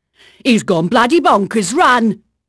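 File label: English female, voice; Pirates Of The Caribbean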